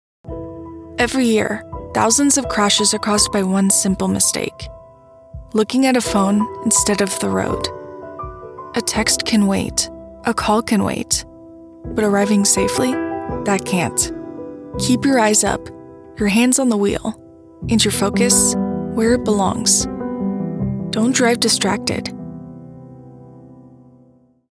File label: Distracted Driving PSA